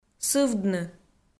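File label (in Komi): "сывдны", Komi, female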